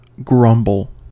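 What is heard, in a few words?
"grumble" US English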